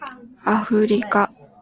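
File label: "Africa", Japanese, female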